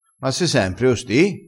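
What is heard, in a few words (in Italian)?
"sempre", dialettale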